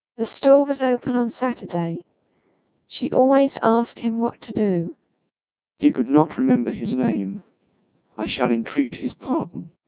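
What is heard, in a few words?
CODEC2 1200 bps, speech, British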